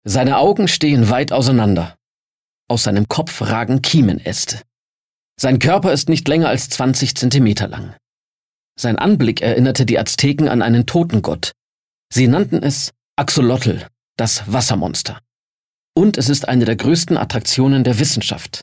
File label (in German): Dokumentarischer Text